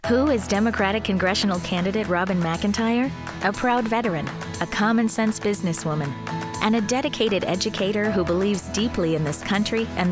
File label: Political Female, Commercial Voiceover, Political Campaigns; Friendly, conversational, warm, articulate